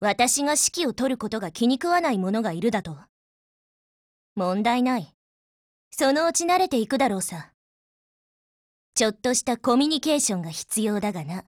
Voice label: DIALOGUE JP